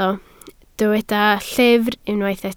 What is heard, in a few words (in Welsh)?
"llyfr", Welsh